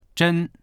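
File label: "zhen1", Mandarin Chinese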